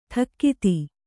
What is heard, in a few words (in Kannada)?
"ಠಕ್ಕಿತಿ", Kannada; ṭhakkiti; noun; = ಠಕ್ಕುಕಾತಿ.